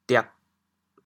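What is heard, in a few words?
"diag4", Teochew, chaoyang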